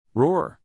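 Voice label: "roar", lion sound; Lions: Growl, roar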